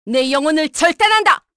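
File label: Vox Skill, Korean; Seria; kings raid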